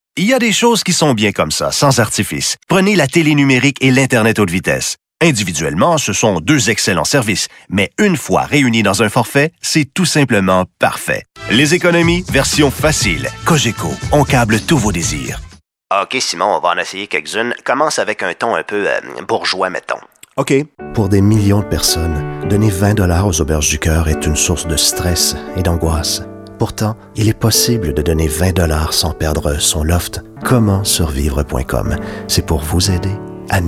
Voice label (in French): publicitaire